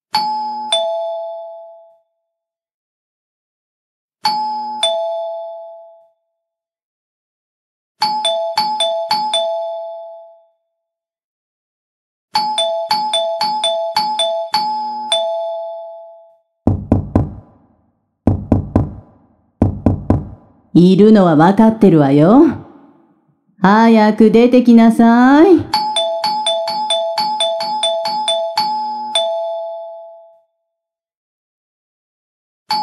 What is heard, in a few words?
Non BGM, ASMR